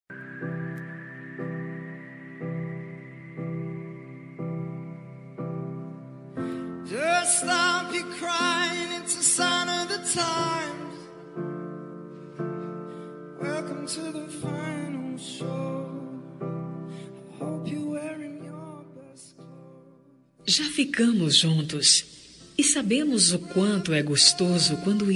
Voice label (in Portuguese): pedido namorar feminina, Telemensagem de Pedido – Voz Feminina; Quer Namorar